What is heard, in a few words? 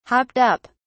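"hopped up", British English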